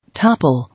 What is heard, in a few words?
"Topple", English